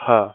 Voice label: "ha", letter, hindi

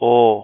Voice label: "au", letter, hindi

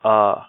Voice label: "ā", letter, hindi

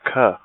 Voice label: "ka", letter, hindi

